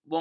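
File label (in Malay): "bongkong", Malay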